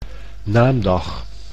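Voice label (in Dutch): "naamdag", Dutch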